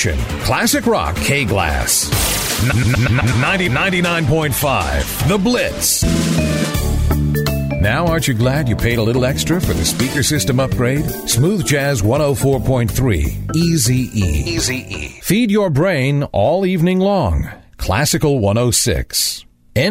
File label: radio imaging